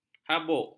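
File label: "habuk", Malay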